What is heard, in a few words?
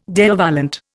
"Deo volente", Indian